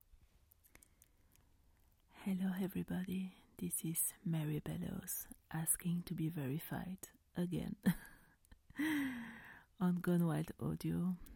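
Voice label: Female Verification; Verification for GWA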